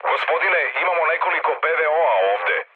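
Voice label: Serbian damaged aa mood low, speech; War Thunder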